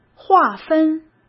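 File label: "划分", Mandarin Chinese; chinese flashcards; hsk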